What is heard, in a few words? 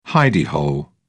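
"hidey hole", English, British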